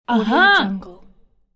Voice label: female voice "aha"